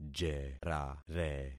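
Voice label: "jerare", concatenated syllables; Affixing experiment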